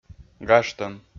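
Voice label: "гажтӧм", Komi, male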